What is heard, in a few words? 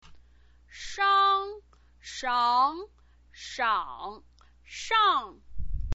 "shang", chinese pinyin